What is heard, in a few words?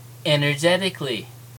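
"energetically", US English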